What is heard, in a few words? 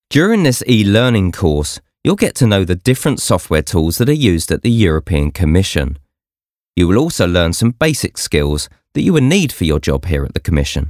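English, male, elearning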